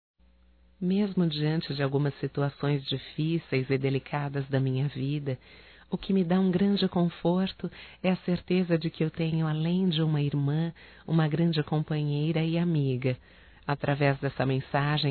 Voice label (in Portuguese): Aniversário Feminino, Telemensagens